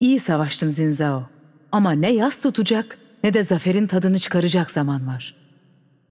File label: tr TR Game Outro, VO; bloom minigame